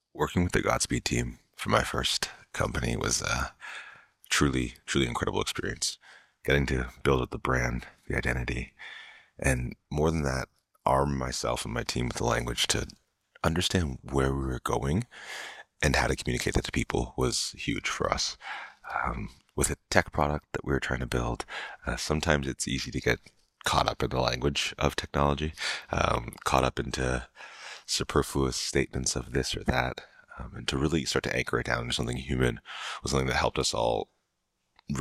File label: Testimonial; Firework